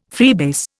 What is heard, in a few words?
"freebase", Indian